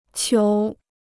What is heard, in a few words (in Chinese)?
"球", Chinese